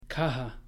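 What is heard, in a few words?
"caja", Spanish; pronouncing h and j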